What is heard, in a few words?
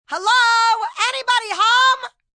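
"Anybody Home" female; Greetings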